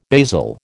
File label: "basil", American